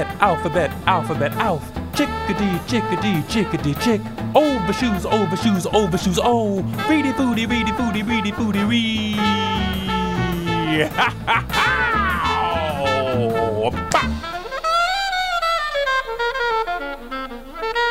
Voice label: Played Be-Bop Narration Orginal Music Tempo